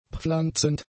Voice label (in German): "pflanzend", German; adjectives